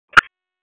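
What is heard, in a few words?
Wake County EMS Dispatch, p25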